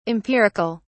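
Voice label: "empiric(al)", British English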